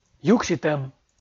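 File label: "юксьытӧм", Komi, male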